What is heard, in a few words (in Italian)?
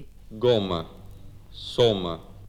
O chiuso Cagliari